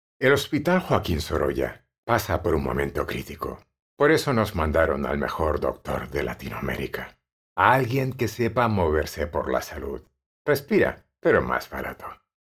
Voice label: Spanish medical narration; voice actors

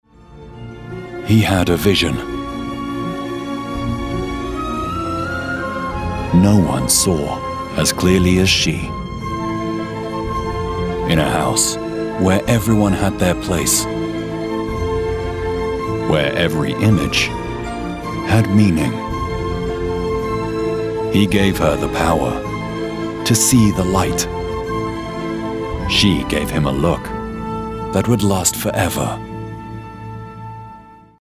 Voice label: English British movie trailers

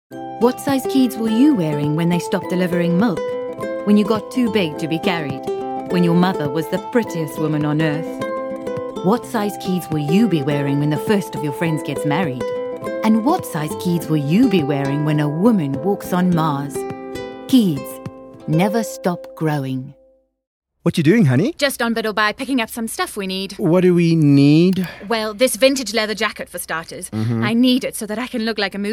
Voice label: english south african commercial

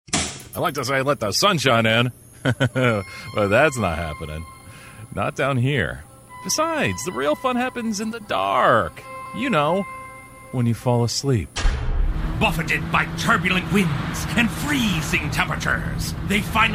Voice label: english american others; professional English voice actor

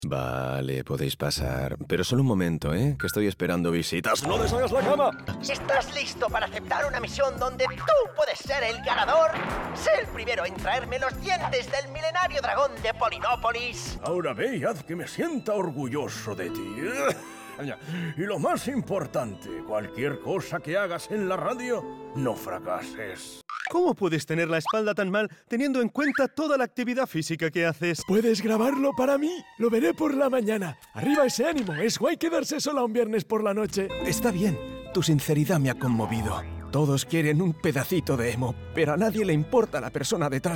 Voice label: spanish animation

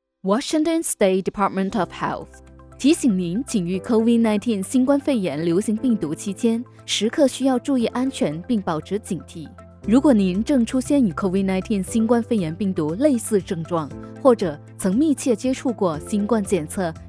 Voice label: Chinese Mandarin narration

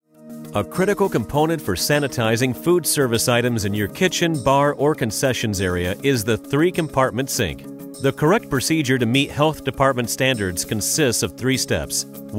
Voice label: english american narration

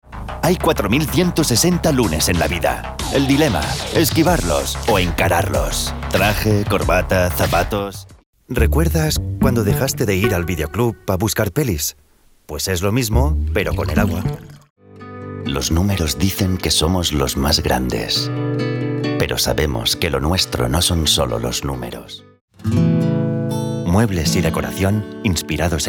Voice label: spanish promos; Sou um talento profissional de locução em espanhol da Espanha e catalão.

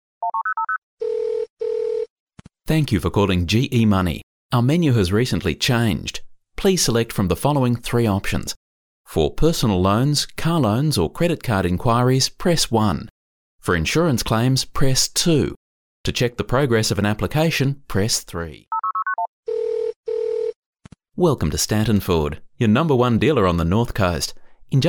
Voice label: english australian ivr

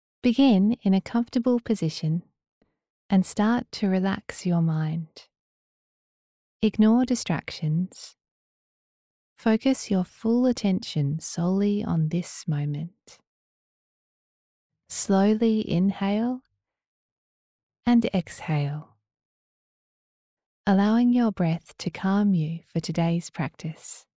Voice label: English Australian audiobooks

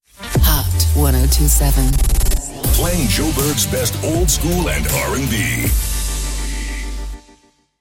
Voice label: english irish radio imaging; dubladora especialista e multilíngue

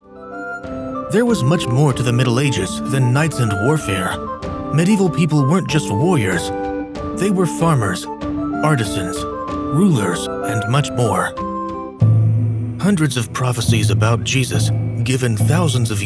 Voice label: english american documentaries

